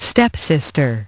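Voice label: "stepsister", English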